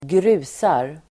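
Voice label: "grusar", Swedish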